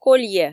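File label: "колье", Komi, female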